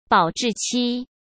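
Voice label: "保质期", Mandarin Chinese; hsk; chinese-flashcards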